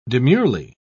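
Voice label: "demurely", British English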